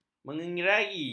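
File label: "menyeringai", Malay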